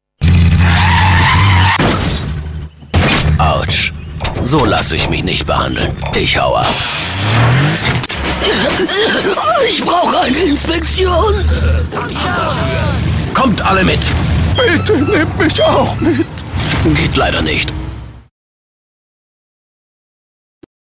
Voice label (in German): Trickstimmen Autos alle Stimmen